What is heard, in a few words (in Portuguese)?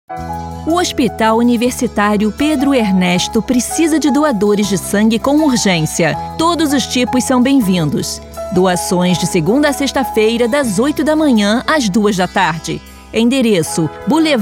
Spot Radio; UERJ